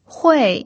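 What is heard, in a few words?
"hui" fourth tone, pinyin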